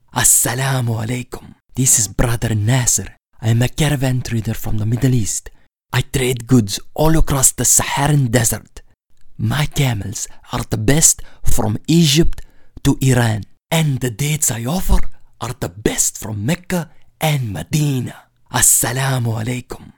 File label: Middle Eastern Accent